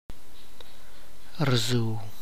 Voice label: "flies", tlacochahuaya